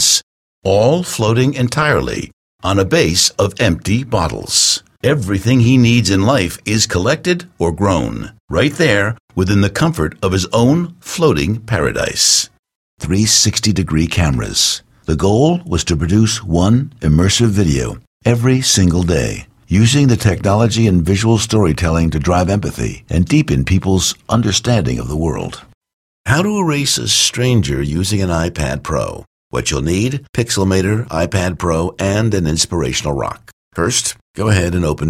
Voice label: Narration, male voice talent